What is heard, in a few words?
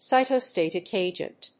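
"Cytostatic Agent", English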